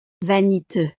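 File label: "vaniteux", French; estados animo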